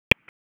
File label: PS TAC, p25; wake p25